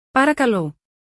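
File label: "parakalo", Greek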